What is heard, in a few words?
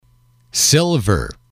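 "silver", English, male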